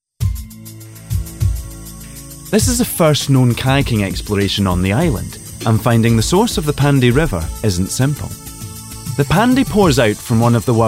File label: narrative, voiceover